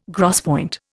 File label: "gros point", Indian